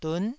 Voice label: "dun9", taiwanese syllable